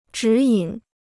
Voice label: "指引", Chinese

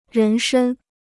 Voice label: "人身", Chinese

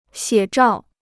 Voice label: "写照", Chinese

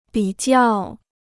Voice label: "比较", Chinese

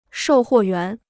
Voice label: "售货员", Chinese

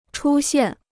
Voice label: "出现", Chinese

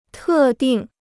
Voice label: "特定", Chinese

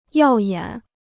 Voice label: "耀眼", Chinese

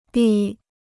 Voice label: "闭", Chinese

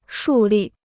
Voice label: "树立", Chinese

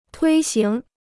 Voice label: "推行", Chinese

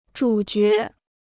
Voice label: "主角", Chinese

